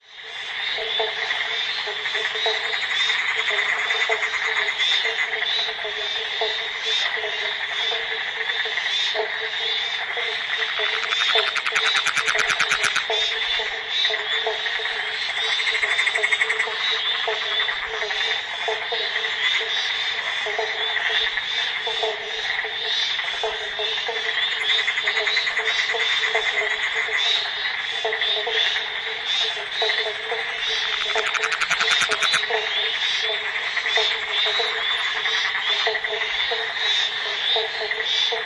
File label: audio conversation stereo